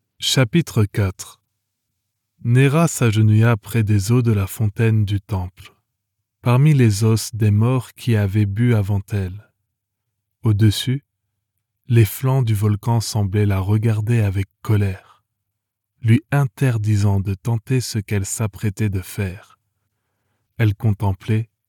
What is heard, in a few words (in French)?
Audiobook fantastique, voix off masculine; podcasts; audiobooks